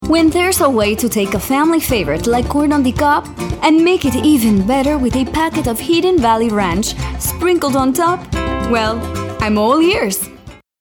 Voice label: Voiceover; Hidden Vally Ranch